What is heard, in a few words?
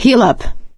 suggest health, survivor voice; Left 4 Dead 2; producer